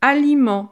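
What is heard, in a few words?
"aliment", French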